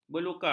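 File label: "belukar", Malay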